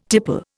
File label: "tipple", Indian